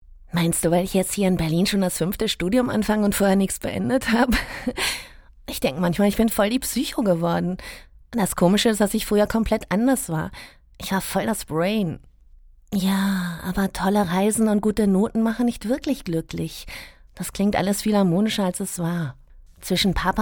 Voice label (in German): podcast dialog